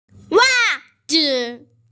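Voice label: "wa4zi5", Mandarin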